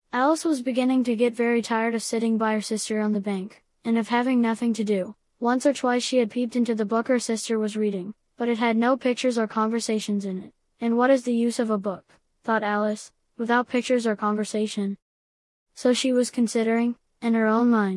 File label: male